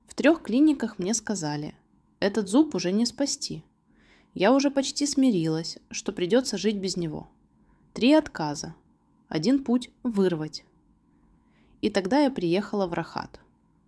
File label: voiceover